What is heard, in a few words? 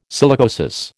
"silicosis", American